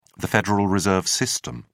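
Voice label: "federal reserve system", British English, dictionary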